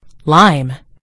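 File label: "lime", British English; gcompris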